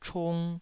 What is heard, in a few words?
"chung2", taiwanese